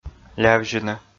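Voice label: "лявзьыны" Komi, male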